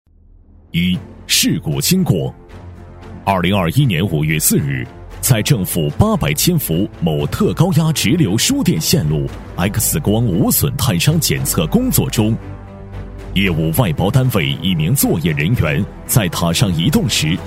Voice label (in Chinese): 男 警示专题 事故经过解说